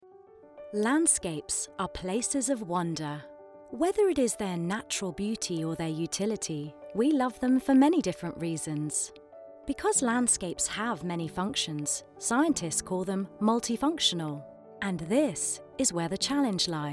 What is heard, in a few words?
CORPORATE